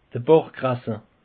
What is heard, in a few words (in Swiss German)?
"bachkrasse", alsace; peche